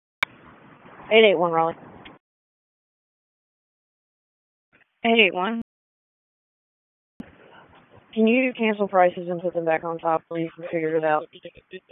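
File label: Police Southeast District Dispatch; Raleigh; wake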